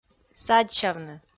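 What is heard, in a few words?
"садбсявны", Komi, female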